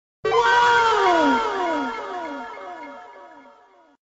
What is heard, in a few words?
anime wow male